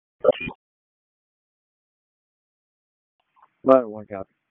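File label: FIRE Operations, p25; FIRE OPS 2; wake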